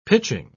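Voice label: "pitching", British English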